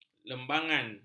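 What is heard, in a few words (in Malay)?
"lembangan", Malay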